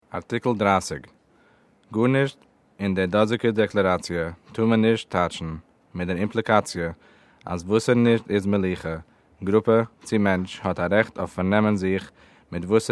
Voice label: Yiddish